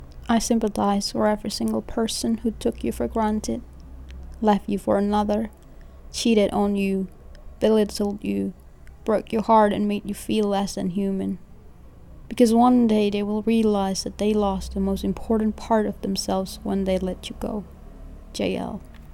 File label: reading